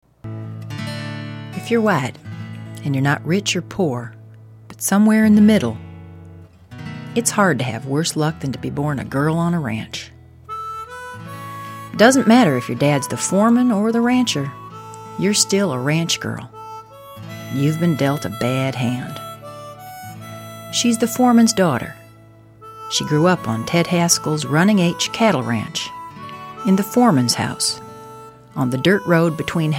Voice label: Folksy